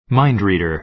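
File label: "mind reader", American English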